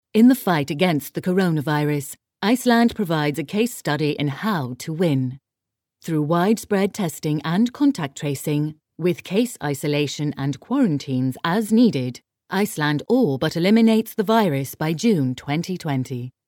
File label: english british corporate videos